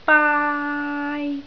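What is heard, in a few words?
"baai1", Cantonese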